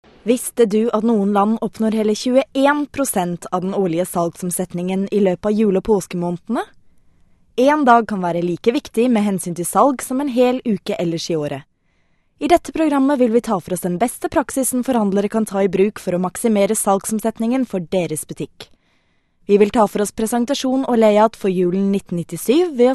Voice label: Norwegian, F, Documentary